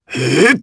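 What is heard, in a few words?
Vox Casting Japanese; Ezekiel; kingsraid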